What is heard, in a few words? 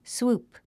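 "swoop", English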